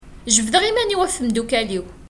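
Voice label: "Jebdeɣ iman-iw ɣef temdukal-iw.", Kabyle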